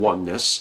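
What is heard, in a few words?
"oneness", American English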